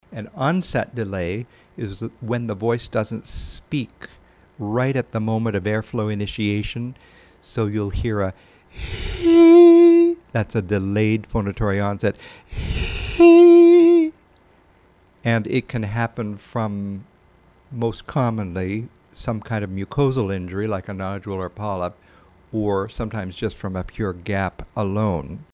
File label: Onset delay